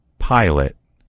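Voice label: "pilot", American English; gcompris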